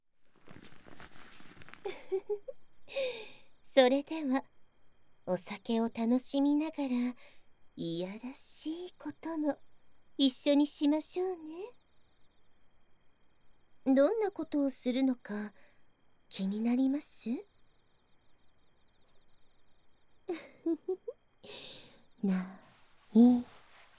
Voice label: 挿入しながらまったりと…お酒の口移し（環境音 効果音あり）; ASMR

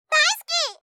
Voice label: 大好き, 相づち・反応系【ポジティブ】, ASMR

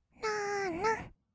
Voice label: "らんらんっ", kawaii voice; 1200 kawaii voice!!; 色々なワンショット

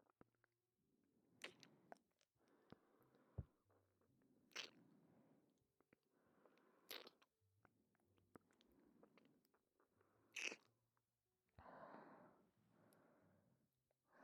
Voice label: 添い寝; かりんと一緒に添い寝

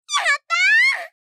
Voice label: 「やったあ！」, 相づち・反応系【ポジティブ】

